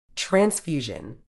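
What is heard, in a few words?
"transfusion", British English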